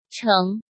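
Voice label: "Chéng", Chinese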